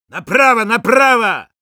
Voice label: Sniper head right, ru

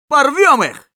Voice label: battle cry

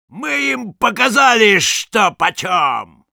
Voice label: Sniper cheers, ru

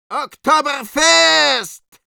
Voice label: Medic cheers, ru

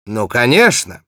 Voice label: Spy cheers, ru

